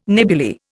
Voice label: "nebuly", Indian